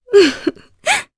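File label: Vox Happy Japanese; Maria; kingsraid; voices heroes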